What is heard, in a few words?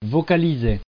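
"vocaliser", French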